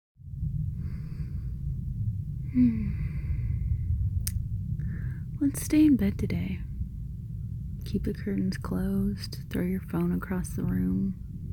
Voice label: Romantic whimsical; F4M; Stay in Bed; playing hooky

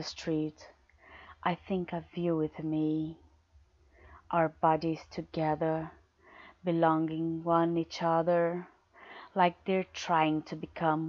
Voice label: Erotic poetry, F4A; Name Challenge; This is my contribution for the Name Challenge.

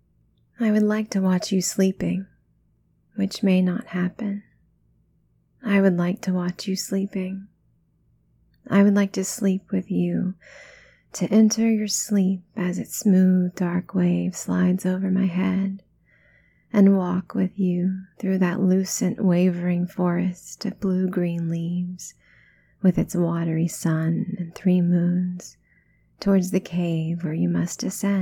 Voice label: poetry reading; F4A; Variation on the Word Sleep by Margaret Atwood

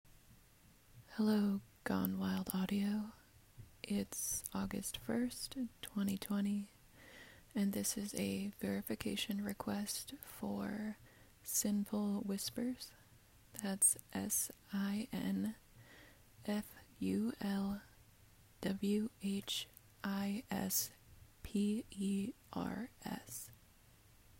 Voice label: F, verification request

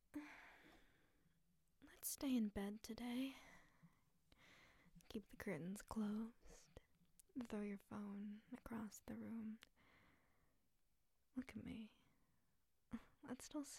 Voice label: Erotic poetry, F4M; Stay in bed with me; Whimsical; Real-world romanticism; Let’s play hooky

